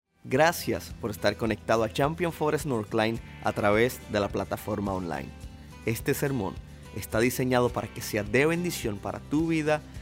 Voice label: Sermon Spanish